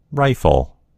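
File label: "rifle", American English; gcompris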